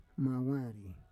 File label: "mawari", Roon